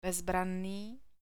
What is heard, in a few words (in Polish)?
nn przyklady, czeski alfabet